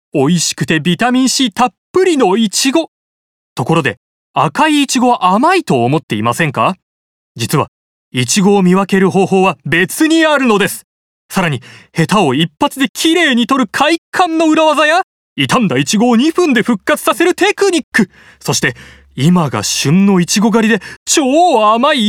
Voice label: 番宣アップテンポ

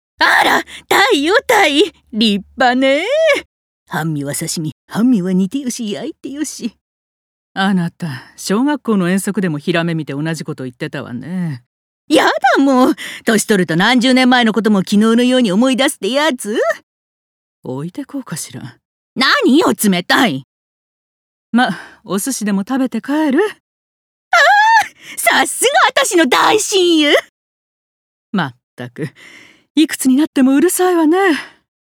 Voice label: 会話50代

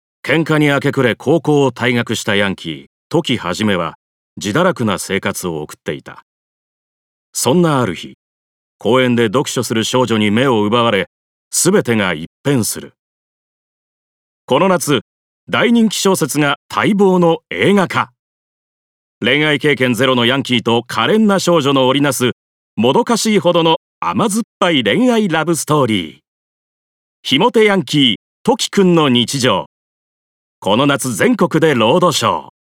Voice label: 映画紹介ナレ

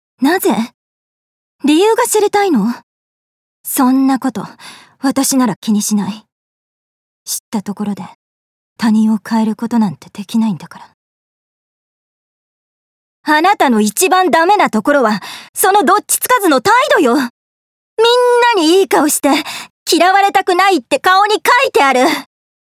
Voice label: 少女

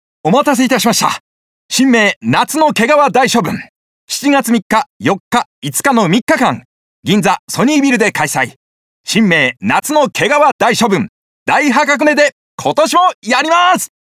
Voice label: セール広告ナレ

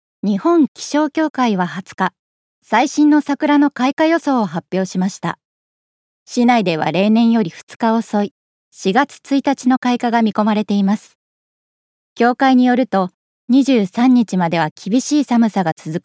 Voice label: ニュース

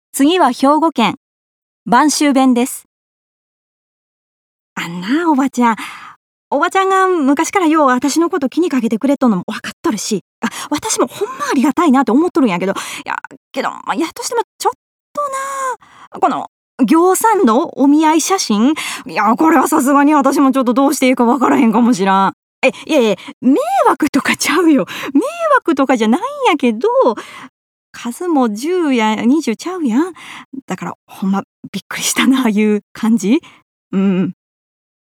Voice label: 方言

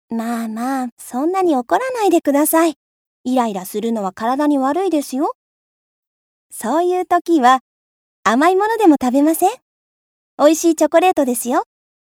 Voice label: 20代

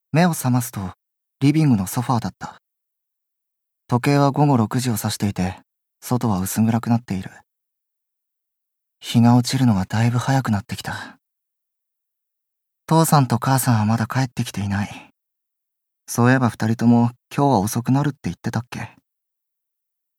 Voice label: モノローグ

